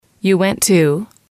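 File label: you went to, English, female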